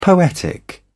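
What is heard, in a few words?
"poetic", British English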